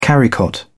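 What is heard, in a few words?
"carrycot", English, British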